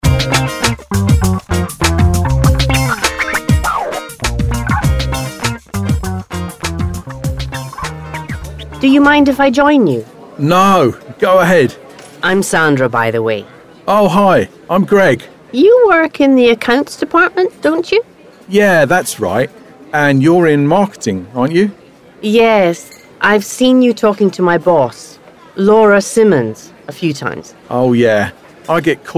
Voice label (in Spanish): Ingles speaking unit; Ingles sin verguenza